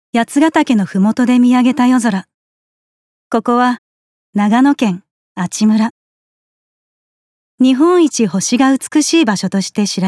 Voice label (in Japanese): プラネタリウムナレ